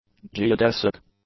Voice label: "geodesic", english; adjectives